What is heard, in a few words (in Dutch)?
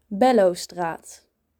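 "Bellostraat", Dutch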